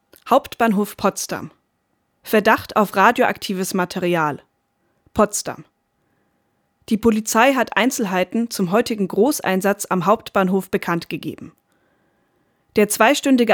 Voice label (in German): Nachrichten